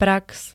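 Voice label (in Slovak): "prax", Slovenské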